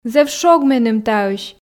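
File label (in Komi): зэв шог меным таысь, Komi, female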